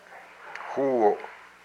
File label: "my teeth", hupa